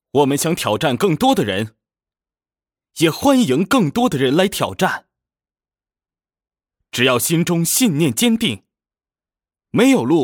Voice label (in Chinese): 纯干音音频